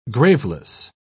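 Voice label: "graveless", British English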